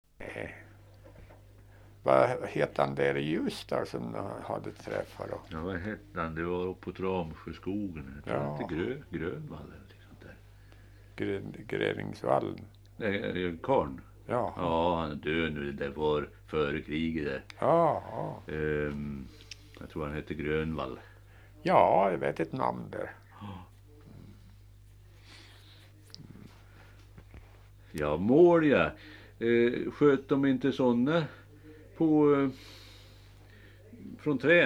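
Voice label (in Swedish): Bjuråker Hälsingland, dialekter; Svenska sagor; Folkminnen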